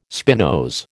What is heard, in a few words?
"spinose", American